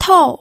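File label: "tou4", Mandarin Chinese, pinyin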